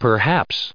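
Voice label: "perhaps", US English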